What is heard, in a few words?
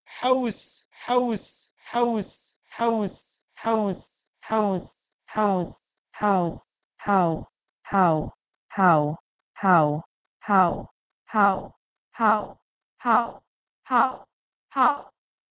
Armenian hav; PIE h2euis